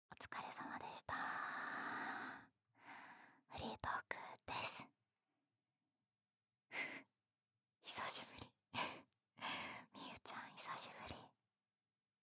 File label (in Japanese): フリートーク; 年下彼女の拘束息止め呼吸管理手コキ